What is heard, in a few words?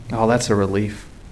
relief, hostage; cstrike